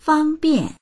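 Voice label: "fang1bian4", Mandarin Chinese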